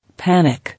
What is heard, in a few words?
"Panic", English